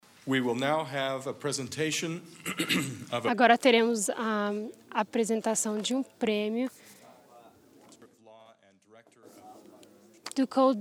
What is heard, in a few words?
Portuguese; iclrs symposium 2009